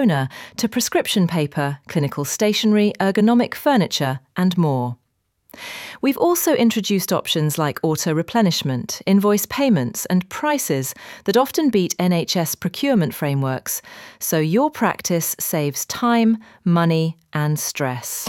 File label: Office AI Speech Sample